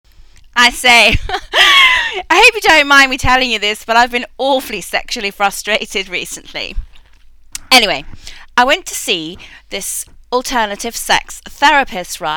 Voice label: Vocal Posh